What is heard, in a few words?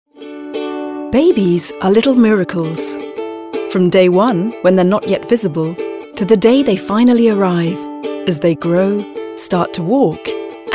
Narrative